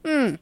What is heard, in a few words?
"Hmm", voices Mobster